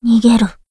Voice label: run away, voice commands; svencoop; Blanc

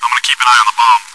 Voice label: chitchat, bot, voice commands; svencoop

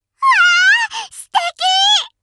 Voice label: taunt, voice commands Anime Loli; svencoop

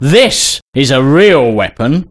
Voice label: Cheer, voice commands male; svencoop

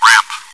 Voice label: chitchat, bot, voice commands; svencoop

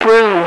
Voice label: "room", radio, female

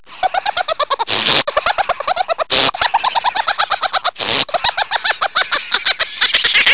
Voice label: chipmunk

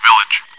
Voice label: chitchat; svencoop

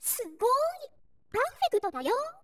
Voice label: cheer, voice commands; svencoop; Miku

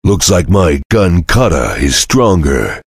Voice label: cheer; svencoop; voice commands; Duke

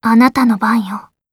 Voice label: with you, voice commands; svencoop; Blanc

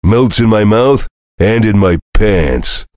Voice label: chitchat; svencoop; voice commands; Duke

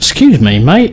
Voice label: Sorry, male; svencoop

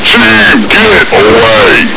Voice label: run, voice commands, Grunt; svencoop

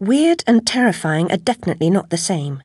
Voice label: chitchat; svencoop; Alice

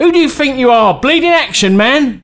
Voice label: Taunt, male; svencoop

